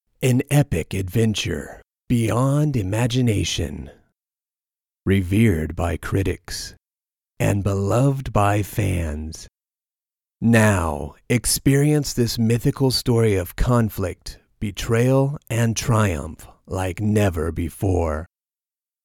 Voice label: Movie Trailer